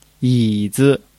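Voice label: "yǐzi", Chinese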